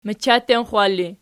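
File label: "machattenxwalli", nominative, Archi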